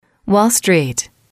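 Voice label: "wall street", English, female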